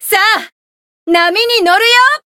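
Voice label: limit break, Japanese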